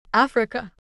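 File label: "Africa", English pronunciation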